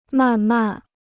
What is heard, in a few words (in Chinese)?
"慢慢", Chinese